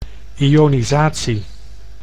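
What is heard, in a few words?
"ionisatie", Dutch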